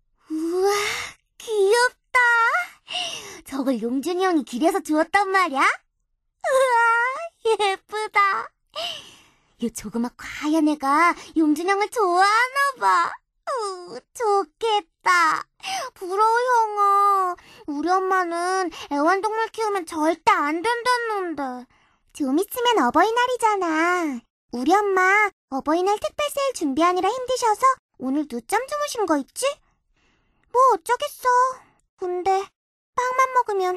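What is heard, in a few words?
Korean female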